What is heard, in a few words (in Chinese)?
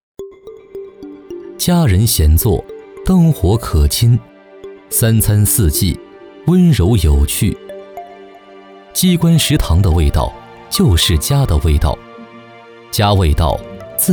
男, 纪录片解说; 舌尖; 家味道